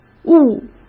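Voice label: "勿" Mandarin Chinese; hsk; chinese-flashcards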